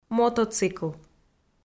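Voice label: "мотоцикл" Komi, female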